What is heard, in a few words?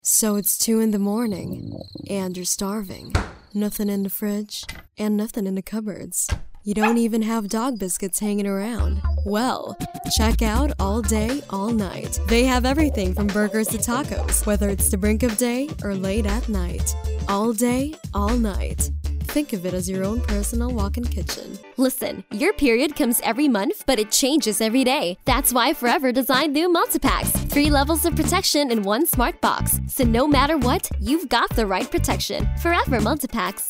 English, commercial; Commercial Demo Reel